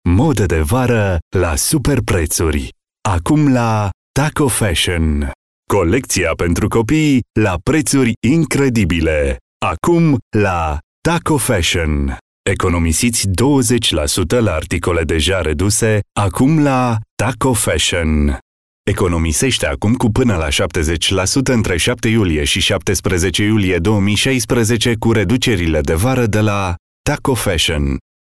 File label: romanian voice commercial